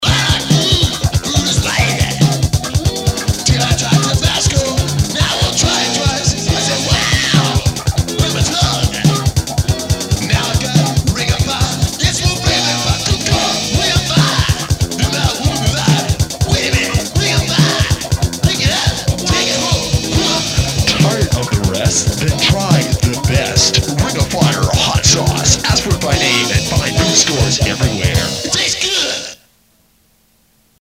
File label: Ring Of Fire radio Ad